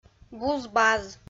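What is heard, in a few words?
"буз-баз", Komi, female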